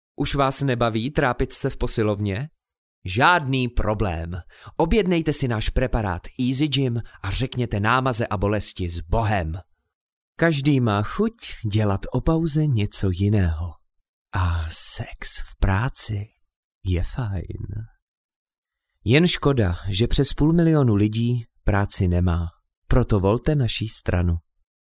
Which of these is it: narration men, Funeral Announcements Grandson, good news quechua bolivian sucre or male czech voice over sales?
male czech voice over sales